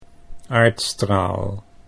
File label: "aardstraal", Dutch